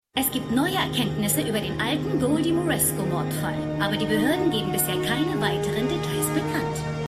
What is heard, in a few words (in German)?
Nachrichtensprecherin